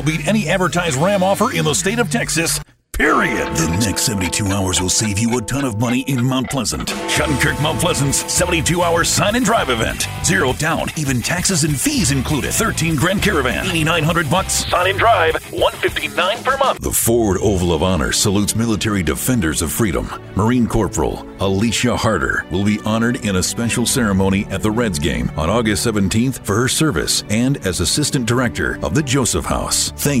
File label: Auto Dealer Male